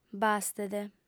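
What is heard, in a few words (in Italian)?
"bastet", lingua sarda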